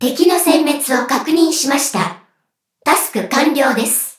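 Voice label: victory, Japanese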